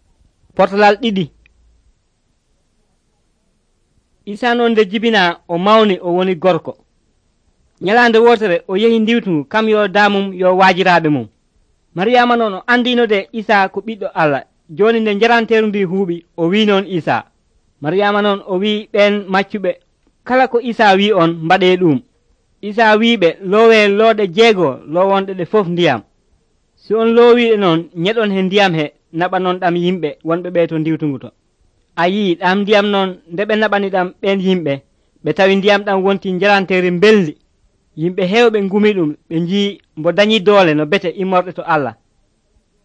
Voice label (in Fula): pulaar fulacunda, drama; pulaar bible society of senegal nt